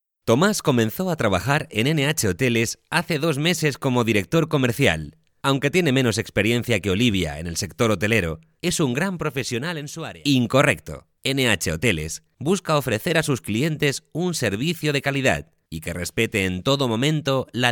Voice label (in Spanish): Euro Spanish Learning